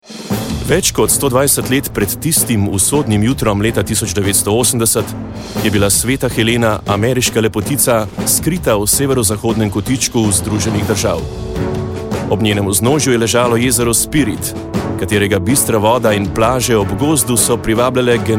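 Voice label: slovenian male narration; voice demos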